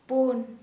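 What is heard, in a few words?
"four", Santali, female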